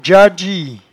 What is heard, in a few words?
"djadgie", patois jura